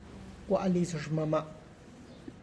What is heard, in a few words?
I went to my mom's house, Tlacochahuaya Zapotec; gua' liz xhnana